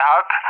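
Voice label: "Hawk", English speech; AirDefence; DCS; Allied Flight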